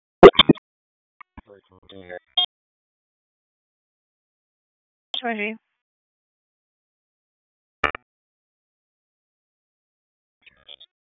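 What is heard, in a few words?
Police District Dispatch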